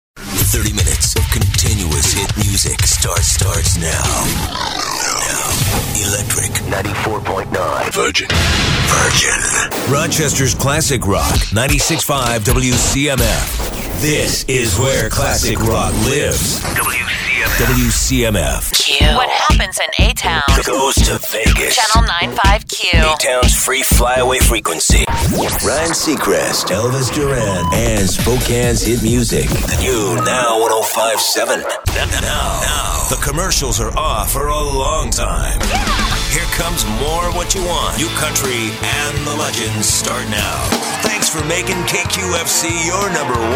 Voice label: radio male; radio imaging